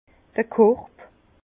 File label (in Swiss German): "korb", Bas Rhin; vin; panier